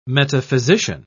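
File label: "metaphysician", English, British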